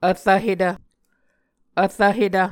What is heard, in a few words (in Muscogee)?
"vrvhetv", muscogee